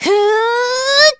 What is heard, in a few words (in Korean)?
Vox Casting, kr; kingsraid; voices heroes